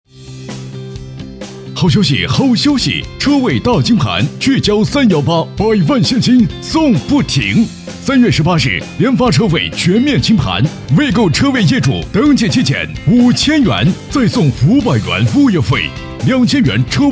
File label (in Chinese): 广告促销; 联发车位